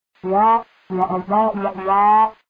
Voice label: Speaking, Sounds Very Odd When He Talks; Charlie Brown